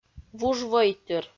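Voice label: "вужвойтыр", Komi, female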